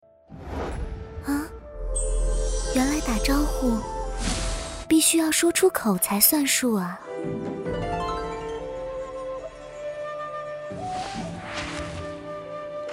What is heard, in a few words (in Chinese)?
游戏, 温柔仙女; 不识烟火温柔仙女